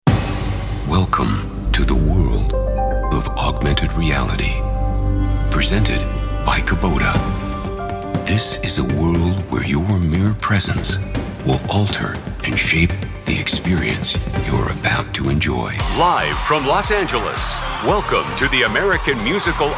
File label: event voiceover